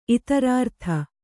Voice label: "ಇತರಾರ್ಥ", kannada

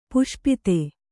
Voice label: "ಪಾಶುಪತ", Kannada

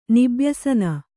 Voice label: "ನಿಬ್ಯಸನ", Kannada

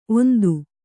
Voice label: "ಓಂತಿ", Kannada

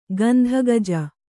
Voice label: "ಗಂಧಗಜ", Kannada

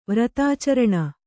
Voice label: "ವ್ರತಾಚರಣ", Kannada

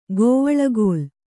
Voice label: "ಕವಳುಗೋಲ್", Kannada

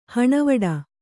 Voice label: "ಹಣವಡ", Kannada

